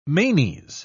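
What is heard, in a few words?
"manes", British English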